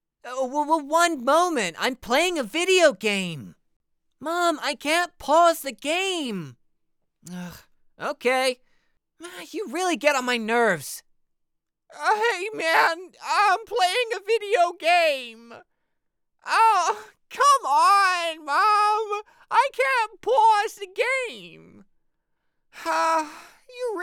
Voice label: Teenager; big mouth profile

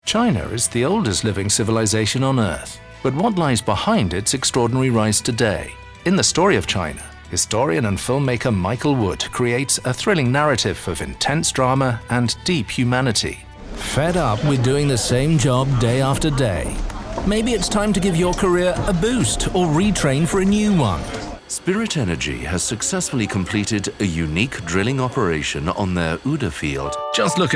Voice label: Corporate Commercials

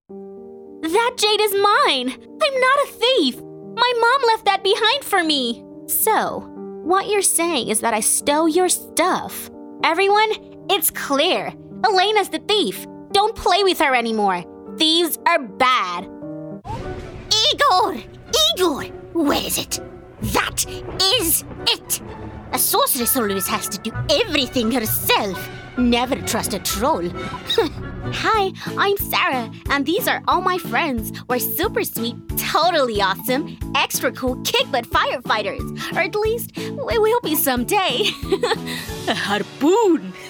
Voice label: Animation

